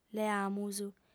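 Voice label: "leamus", female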